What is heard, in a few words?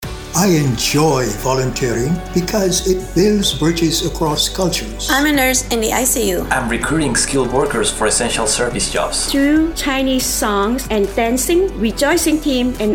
Beach Radio Audio